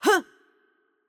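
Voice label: Attack Light, Arabic; bloom minigame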